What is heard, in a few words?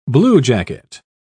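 "bluejacket", British English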